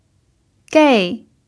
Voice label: "gei4", mandarin pinyin